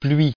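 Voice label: "pluie", French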